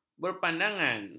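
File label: "berpandangan", Malay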